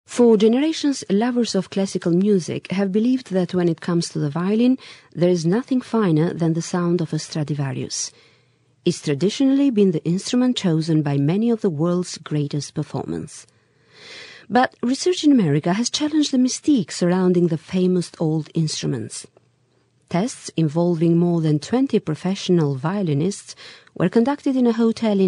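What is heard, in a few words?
English Albanian, female, corporate; accent of albania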